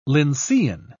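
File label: "lyncean", English, British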